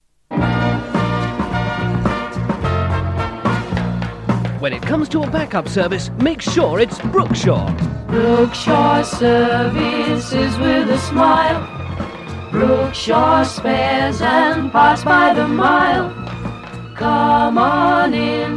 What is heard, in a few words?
Radio Hallam Advert; Brook Shaw Service and Spares